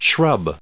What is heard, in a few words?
"shrub", English